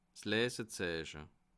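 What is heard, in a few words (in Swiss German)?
"lesezeiche", Alsatian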